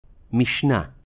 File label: "Mishnah", Hebrew